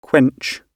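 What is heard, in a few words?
"quench", British English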